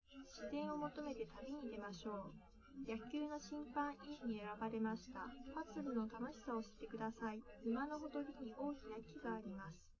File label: female4 liverec 130ms 1m sim